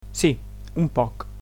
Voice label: "a little", Catalan

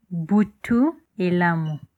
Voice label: goodnight, lingala

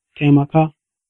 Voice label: help hausa

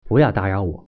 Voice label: leave me alone, mandarin

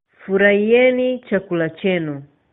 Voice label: bon appetit swahili

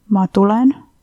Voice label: i'm from, estonian

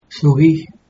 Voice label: sorry, mauritian creole

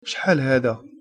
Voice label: how much, arabic

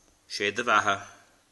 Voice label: you're welcome, irish

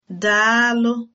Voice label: thanks, igbo

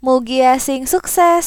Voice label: good luck, sundanese

